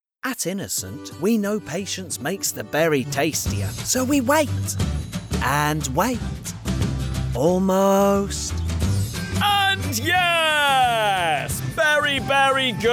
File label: Ad; Innocent